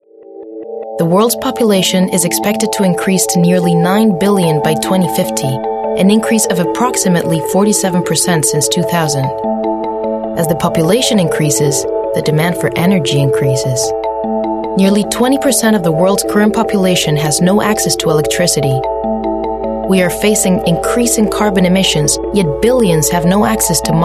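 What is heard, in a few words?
US Narration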